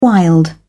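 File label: "wild", British English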